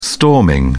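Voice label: "storming", British English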